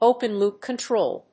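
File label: "Open loop control", English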